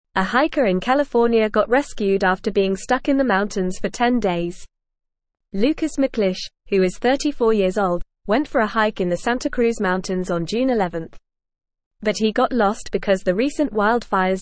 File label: English FAST Reading; Hiker Rescued After 10 Days Stranded in Mountains